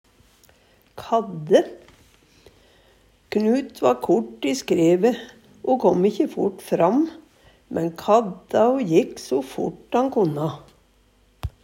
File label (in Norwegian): kadde, Numedalsmål